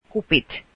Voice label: cups, Finnish

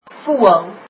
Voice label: bird, afrikaans

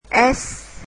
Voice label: "s", vietnamese alphabet

